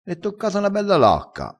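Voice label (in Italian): "lacca", vocabolario dialettale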